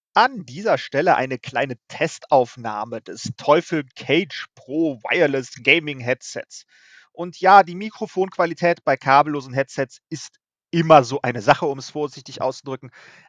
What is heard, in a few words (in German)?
Teufel CAGE PRO Mikrofontest